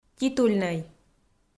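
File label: "титульнӧй", Komi, female; audio dict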